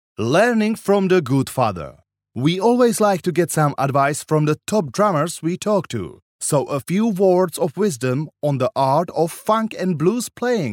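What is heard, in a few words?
Czech, male, English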